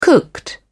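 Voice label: "cooked", British English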